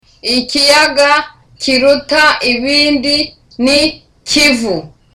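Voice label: Kinyarwanda, Smiling; Ikiyaga kiruta ibindi ni Kivu.; Lake Kivu is the largest.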